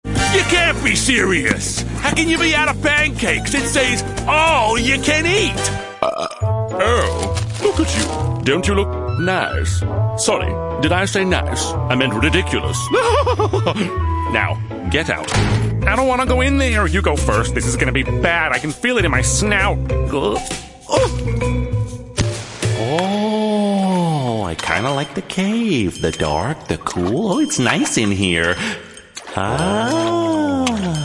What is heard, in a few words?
english international animation; locutor profesional de habla inglesa